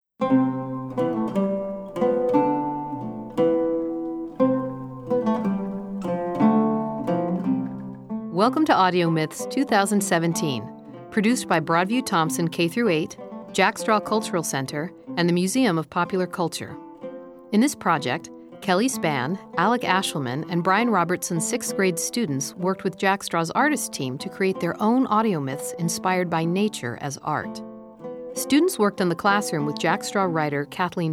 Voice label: Opening Narration; Broadview-Thomson Audio Myths 2017